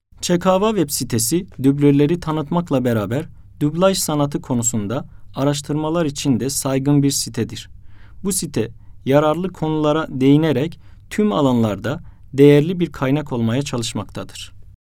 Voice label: turkish

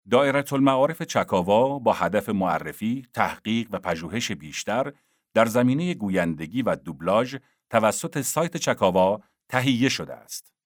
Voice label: news, male narrators; english

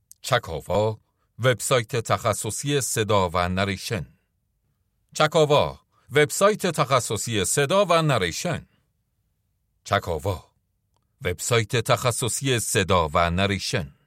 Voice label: tablighati, male

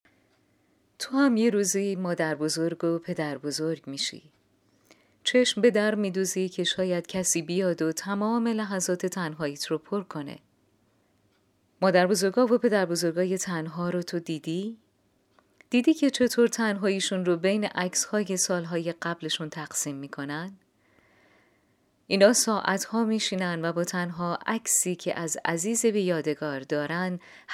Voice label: typecast, female narrators

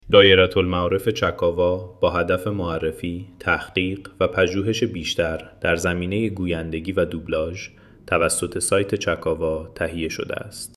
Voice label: male, eco narration

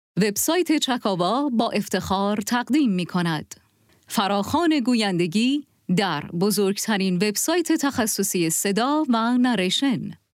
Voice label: epic, female narrators

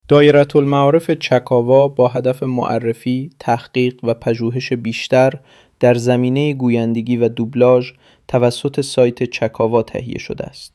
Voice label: male, eco narration

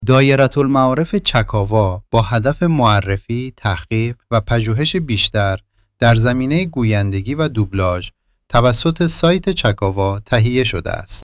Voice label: male, eco narration

